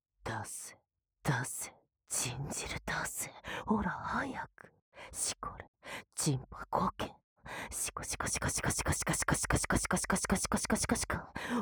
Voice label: 即ヌキボイス; カーラ

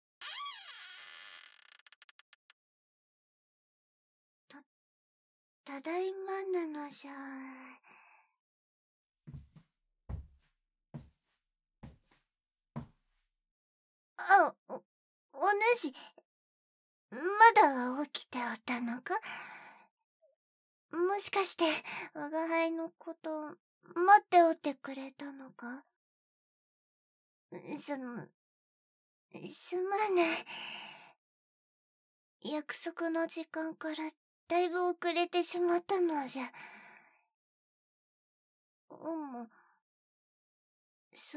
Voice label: 囁き寝取らせ報告

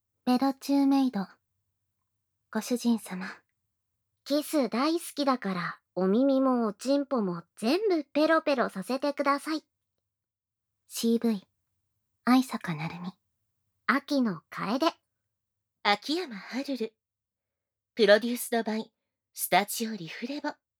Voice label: タイトルコール, ASMR

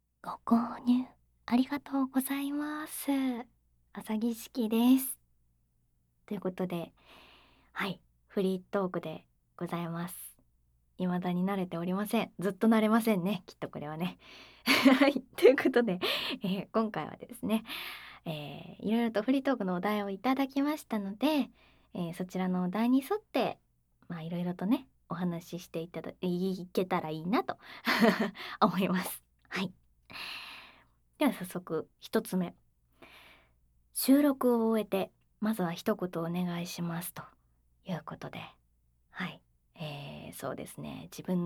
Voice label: フリートーク; もしも○○な漫画喫茶があったら…。

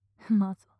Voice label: マゾ・強く・近・右; マゾオスのあなたに敗北射精の快楽を叩き込む音声

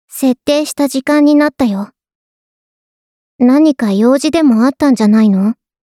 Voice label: アラーム ボイス; 春日野穹; 春日野穹のいる生活; KU100バイノーラル

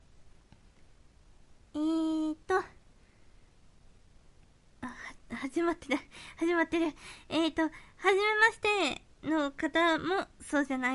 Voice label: フリートーク; 実演

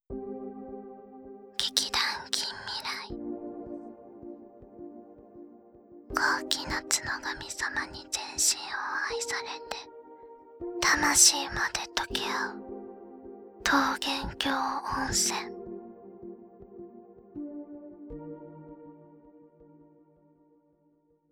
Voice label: タイトルコール; 高貴なツノガミ様に全身を愛されて魂まで溶けあう桃源郷温泉

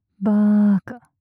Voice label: "バーカ" 強く・極近・左; マゾオスのあなたに敗北射精の快楽を叩き込む音声

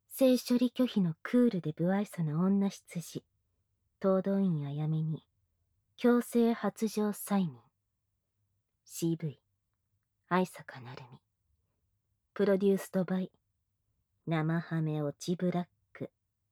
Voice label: タイトルコール, ASMR

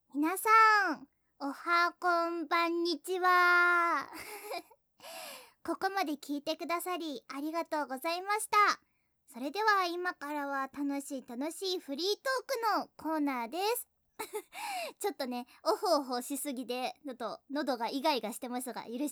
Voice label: フリートーク; おほ声

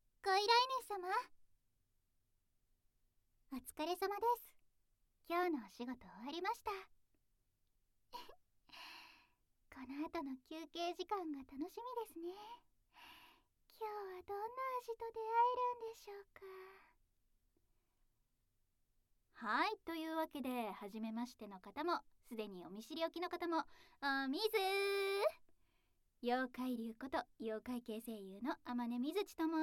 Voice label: フリートーク, ASMR

